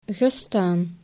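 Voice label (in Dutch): "gestaan", Dutch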